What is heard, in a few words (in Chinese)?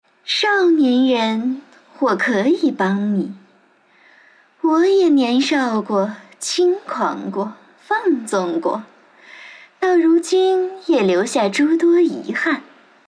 配音